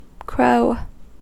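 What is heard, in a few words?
"crow", American English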